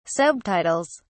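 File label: "subtitles", British English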